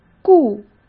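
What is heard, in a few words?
"雇", Mandarin Chinese; hsk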